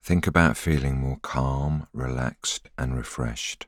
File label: voiceover